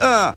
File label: "uh" male annoyed; ooh ahh; uh um